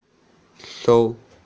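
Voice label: "sou1" Chinese, female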